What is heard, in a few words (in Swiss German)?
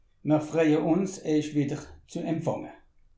"freie", alsace